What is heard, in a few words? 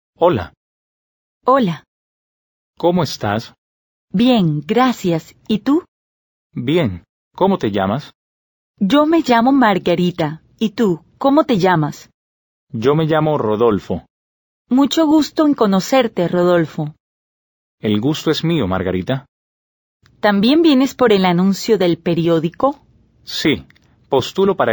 Dialogue, Spanish